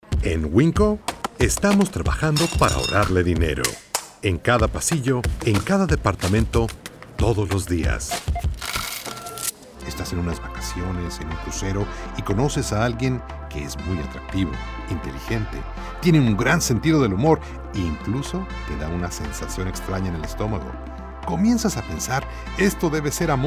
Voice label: spanish commercial